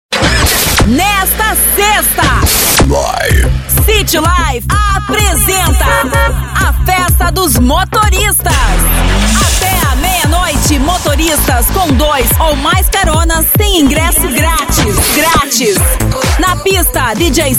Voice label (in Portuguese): loc fem, Locutora Comercial; Spots comerciais, VT's, áudios-visuais, esperas telefônicas, vinhetas, teasers e outros; Criativa Áudio Produtora